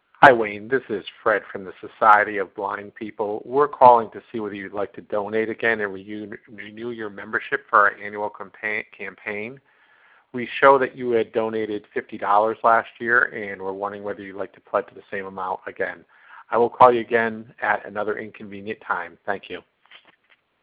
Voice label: voice message; Vonage Visual Voice Mail; telemarketers